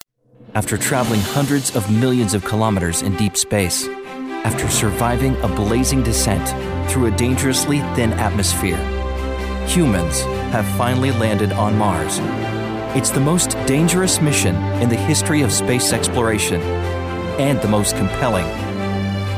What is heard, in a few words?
Documentaries English North American Young adult; VoiceBunny; Male